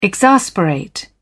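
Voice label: "exasperate", British English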